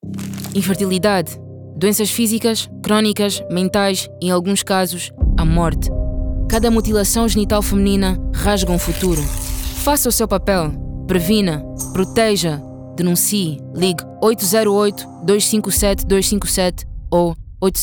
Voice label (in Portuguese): spot radio